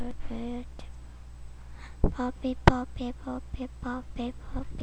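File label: "puppy", spoken word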